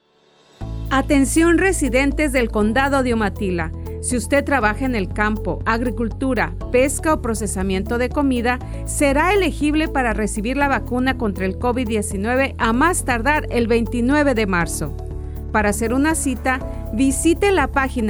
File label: Esp; Umatilla; Vaccine Radio Spots; covid19